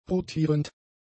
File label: "rotierend", German; adjectives